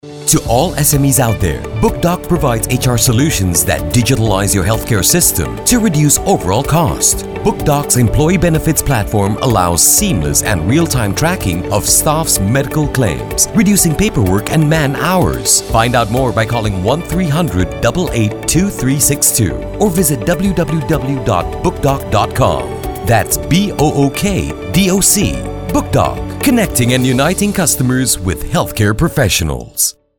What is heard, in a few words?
radio audio ad